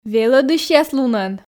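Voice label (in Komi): "велӧдысьяс лунӧн", Komi, female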